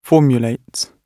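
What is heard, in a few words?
"formulates", British English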